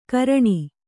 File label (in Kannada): "ಘ್ರಾಣ", Kannada